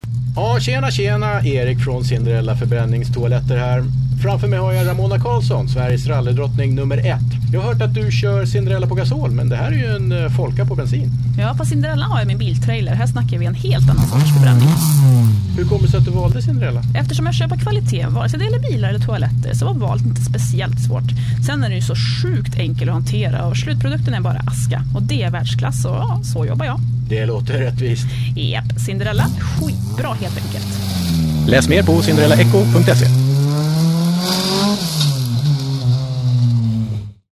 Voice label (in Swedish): Radiospot; Cinderella